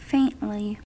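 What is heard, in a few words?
"faintly", American English; pronunciations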